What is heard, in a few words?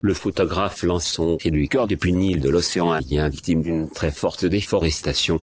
synth